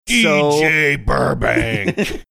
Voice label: deep gruff voice